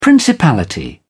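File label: "principality", British English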